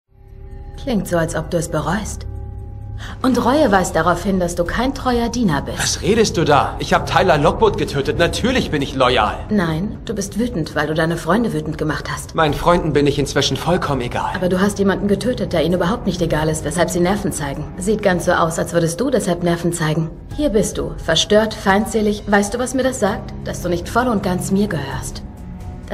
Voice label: Synchron; nathalie kelley; sybil; vampire diaries

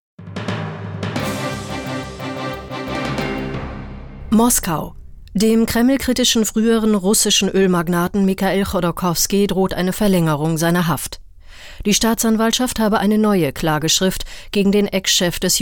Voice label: Nachrichten

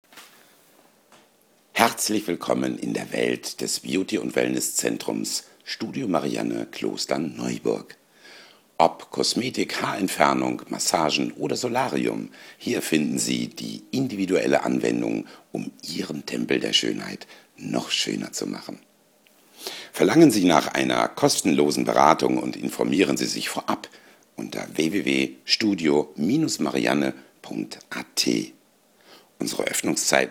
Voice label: telefonschleife

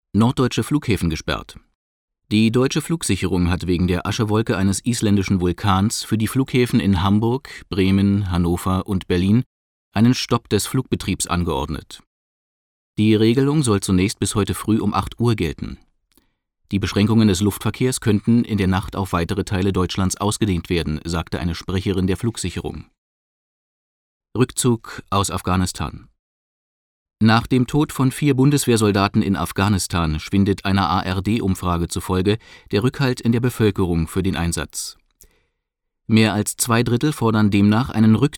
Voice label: nachrichten

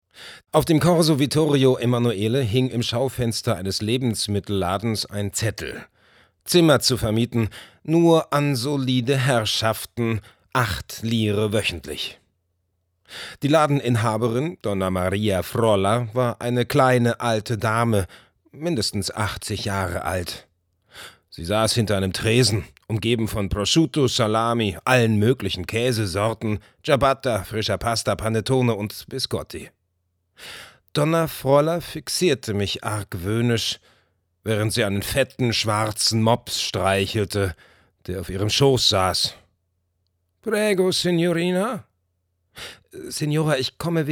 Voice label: hoerbuch; la cucina siciliana

